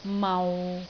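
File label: "mau6", Cantonese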